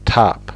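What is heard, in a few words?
"top", English; fonetica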